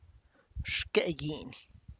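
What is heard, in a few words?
tlacochahuaya, Zapotec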